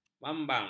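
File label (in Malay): "mambang", Malay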